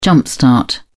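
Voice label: "jump-start", British English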